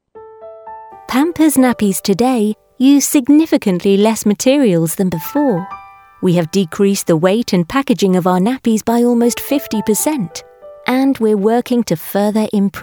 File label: Corporate